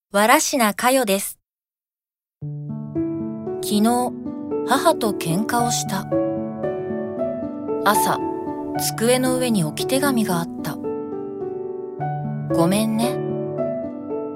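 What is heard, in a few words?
commercial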